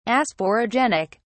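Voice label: "asporogenic", English, British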